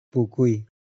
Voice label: "puku i", Tapiete